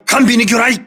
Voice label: voice message torpedo rear, crew ships japanese